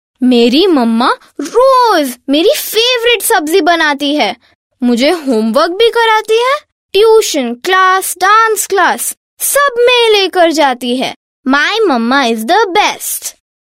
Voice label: female voice 12 years Hindi

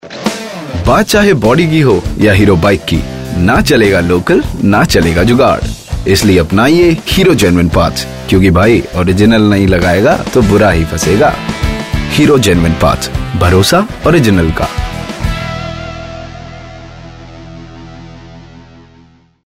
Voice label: Hindi Hero, male voice

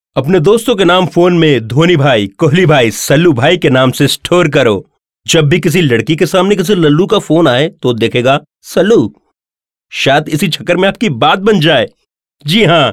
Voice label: Hindi, male voice

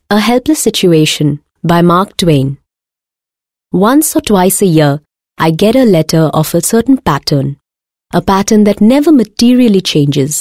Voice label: English, female voice

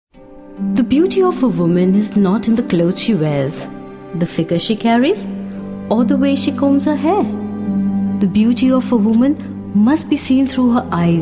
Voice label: female voice, delhi

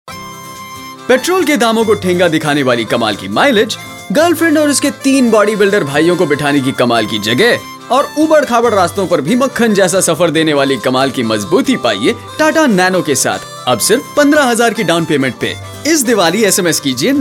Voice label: radio hindi, male voice; tata nano

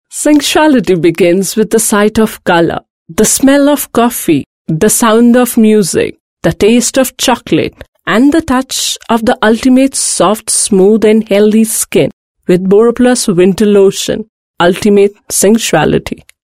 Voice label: female voice english

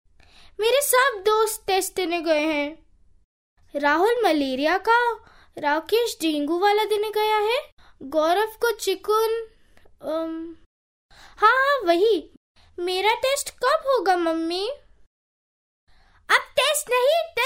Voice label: 12 year girl, female voice

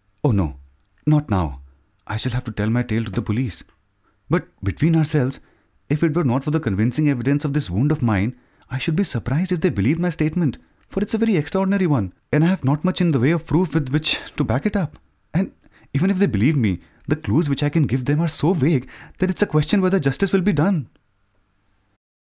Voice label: english, male voice; pepsi

